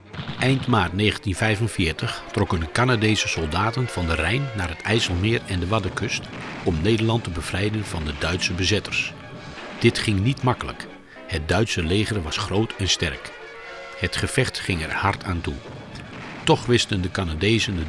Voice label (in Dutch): oorlogsfilm voice over; voice-over fragment